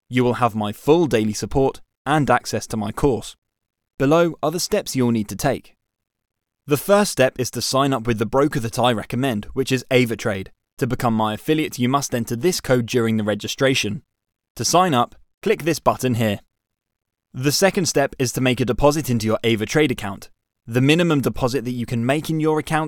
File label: UK Male; Studio Nonagram